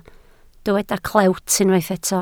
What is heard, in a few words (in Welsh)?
"clewt", Welsh